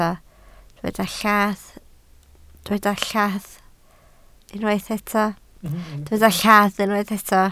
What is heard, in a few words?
"lladd", Welsh